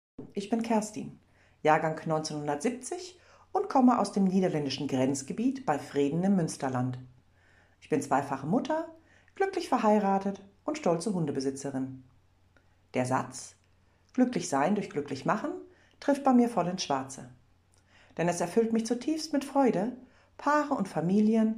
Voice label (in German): Freie Rednerin Stimmprobe